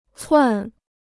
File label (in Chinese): "窜", Chinese